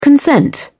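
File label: "consent", British English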